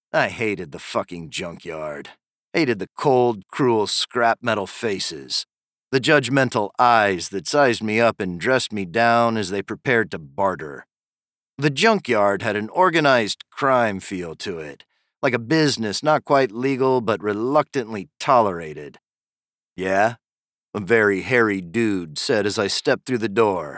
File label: Memoir Conversational